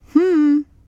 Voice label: Emote Female Hello